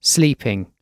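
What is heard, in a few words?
"sleeping", British English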